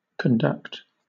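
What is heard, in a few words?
"conduct", British English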